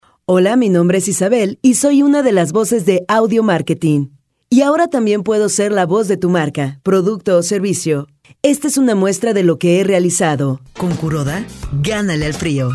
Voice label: Mexico; Locutores; Audiomarketing